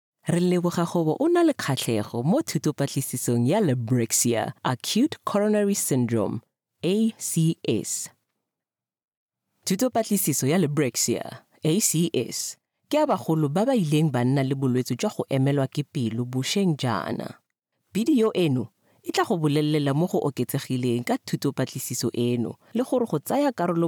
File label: female tswana voice over narrative